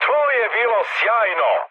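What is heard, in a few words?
Serbian trick mood high; War Thunder; speech srb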